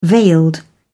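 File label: "veiled", British English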